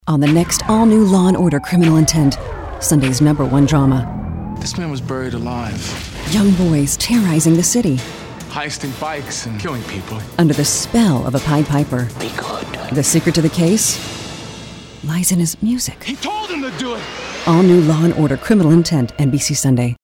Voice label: tv promo